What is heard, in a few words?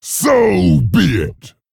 Male Dragon Attack